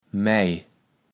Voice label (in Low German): "mej", kaevels platt